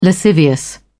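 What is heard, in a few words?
"lascivious", British English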